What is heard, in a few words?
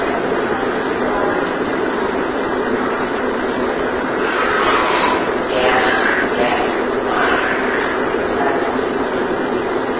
Room with Fireplace Unexplained Older Female Voice Inaudible; Amplified; Turner Home 2nd Floor